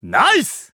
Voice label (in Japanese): VO jp